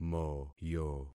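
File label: "moyo", concatenated syllables; Affixing experiment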